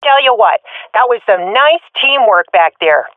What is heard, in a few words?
round won, swat fem; Counter Strike Model Pack; CS:GO